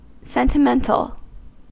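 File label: "sentimental", American English; pronunciations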